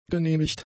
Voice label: "genehmigt", German; adjectives

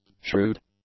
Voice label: "shrewd", English; adjectives

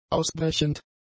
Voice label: "ausbrechend", German; adjectives

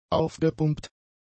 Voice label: "aufgepumpt", German; adjectives

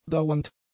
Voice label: "dauernd", German; adjectives

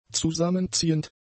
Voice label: "zusammenziehend", German; adjectives

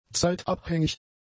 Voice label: "zeitabhängig", German; adjectives

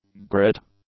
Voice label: "grit", English; nouns

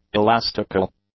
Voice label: "elastical", English; adjectives

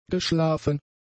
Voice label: "geschlafen", German; adjectives

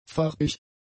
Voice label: "farbig", German; adjectives

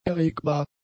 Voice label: "erregbar", German; adjectives

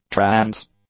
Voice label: "trance", English; nouns

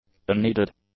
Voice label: "unneeded", English; adjectives

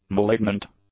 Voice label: "malignant", English; adjectives

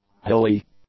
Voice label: "hilly", English; adjectives

